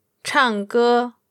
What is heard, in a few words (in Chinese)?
"chang4 ge1", Chinese; chànggē, sing; tones